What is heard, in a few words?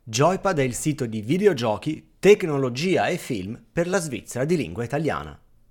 Blue Yeti X; BlueYetiXReview